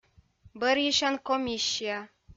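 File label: "бӧйысян комиссия", Komi-Zyrian, female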